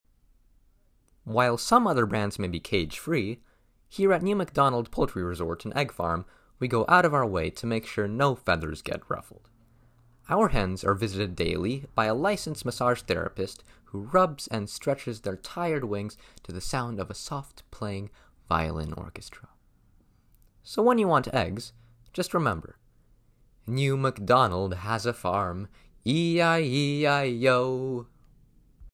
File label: Youth